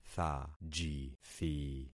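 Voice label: "thajithi", concatenated syllables; Affixing experiment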